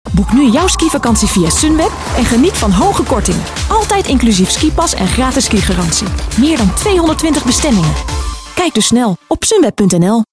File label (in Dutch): Stemdemo Selling